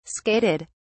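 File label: "skated", British English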